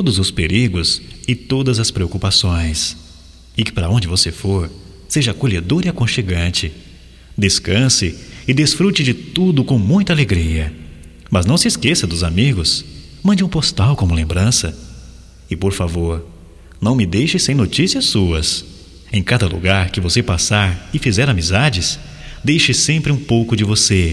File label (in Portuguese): BOA VIAGEM GERAL M, Só Voz, masc; Telemensagens; Telemensagens 2017